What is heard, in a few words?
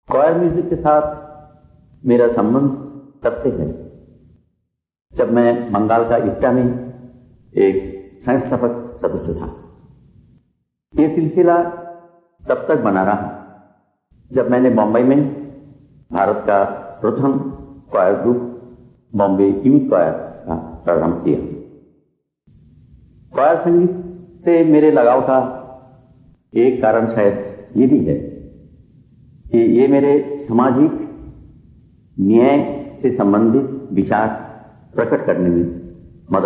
HINDI CHOIR Speaks